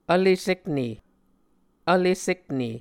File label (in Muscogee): "vlesekne", Muscogee